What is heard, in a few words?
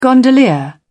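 "gondolier", British English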